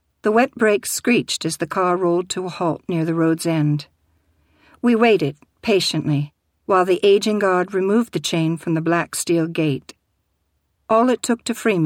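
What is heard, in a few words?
female fiction, narrator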